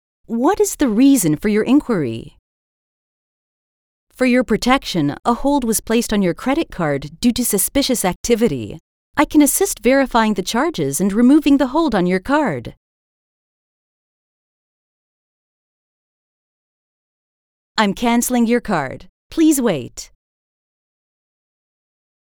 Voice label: Conversational